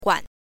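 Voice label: "guan", Mandarin pinyin, neutral tone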